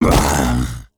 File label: BOSS Hurt